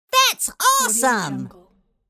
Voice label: cartoon voice thats awesome

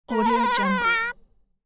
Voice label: cartoon elf scared

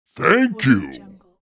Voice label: cartoon large character thank you

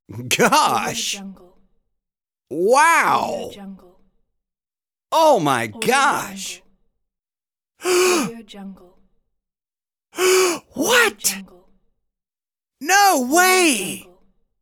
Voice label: male surprised

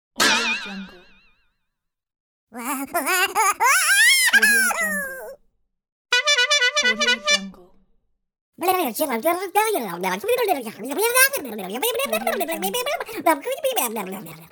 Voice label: funny cartoon voice sounds pack; applehillstudios

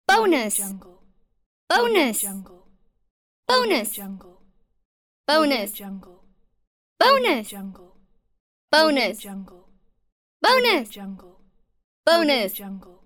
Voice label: girl voice bonus pack

